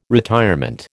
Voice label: "retirement", American